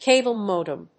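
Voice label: "CABLE MODEM", English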